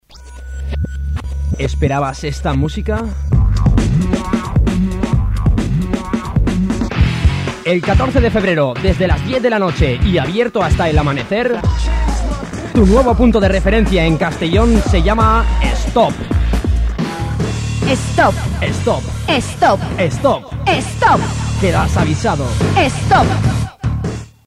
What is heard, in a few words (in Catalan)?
falca publicitaria